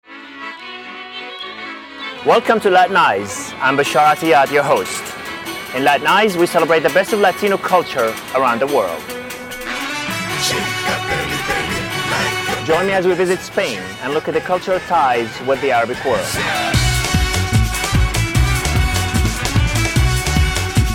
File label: TV Promo English; LatinEyes; headshots demo vo